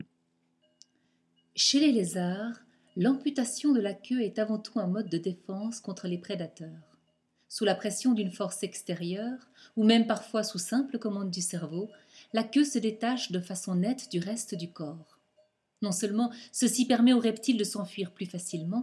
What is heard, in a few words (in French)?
commentaire animalier